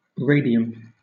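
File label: "radium", British English; pronunciations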